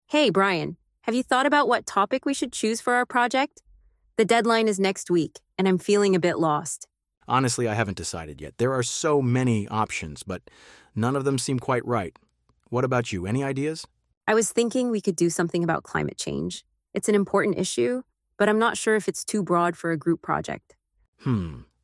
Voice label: Conversation; daily routines and responsibilities